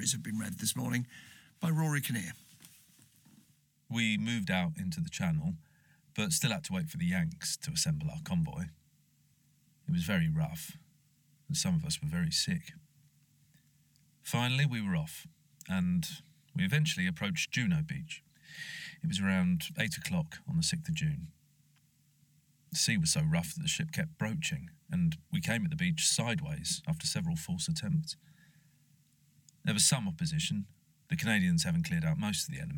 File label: radio 4 over reading